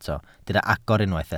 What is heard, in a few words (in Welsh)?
"agor", Welsh